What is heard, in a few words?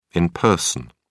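"in person", British English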